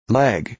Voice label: "leg", English